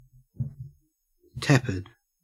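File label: "tepid", Australian; pronunciations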